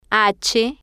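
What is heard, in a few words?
"h", spanish, female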